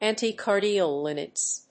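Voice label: "anti-cardiolipin", English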